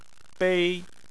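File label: "bei" Chinese, first tone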